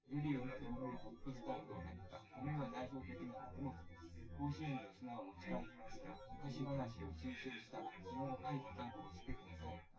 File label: test male synthconv